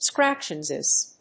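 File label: "scratchiness", English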